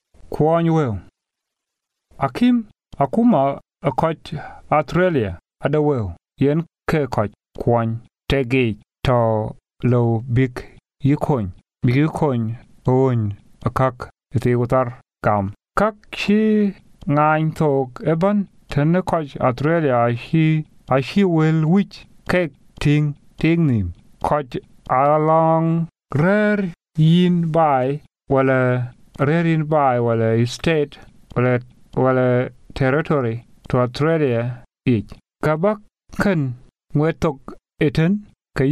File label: Dinka, Financial Assistance; Continence Foundation